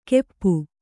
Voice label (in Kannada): "ಕೆಪ್ಪು", Kannada